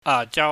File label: "ngajau", Cantonese